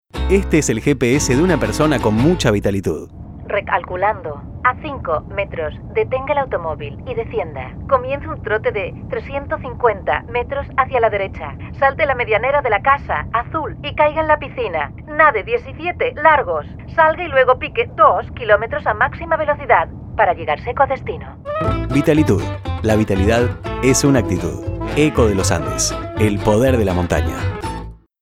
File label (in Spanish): voz gps, hablados; acting